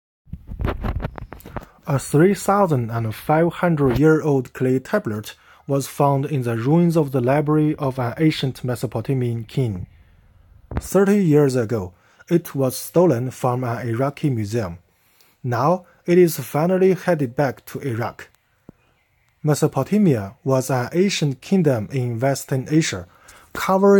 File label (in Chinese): 英文朗读